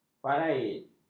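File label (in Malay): "faraid", Malay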